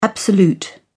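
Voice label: "absolute", British English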